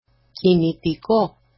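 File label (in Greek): "kinitiko", Greek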